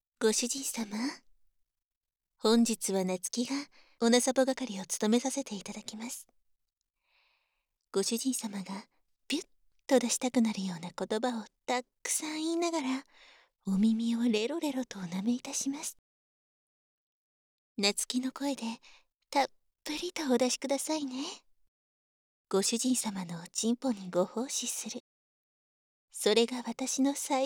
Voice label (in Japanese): ごあいさつ, 左, バイノーラル; ハーレムオナサポ 4人のメイドがご主人様のオナニーをお手伝いするバイノーラル～文、千曜、名月、莉桜～